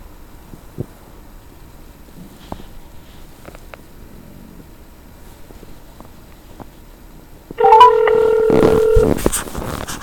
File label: Phone Call